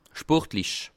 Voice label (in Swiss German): "sportlich", alsace